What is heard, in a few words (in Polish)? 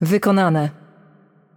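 Game Outro, Polish; pl PL; bloom minigame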